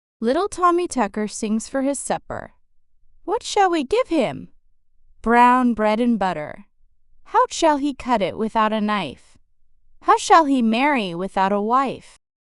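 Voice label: female US, classic rhymes; Little Tommy Tucker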